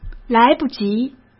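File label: "来不及", Mandarin Chinese; chinese flashcards; hsk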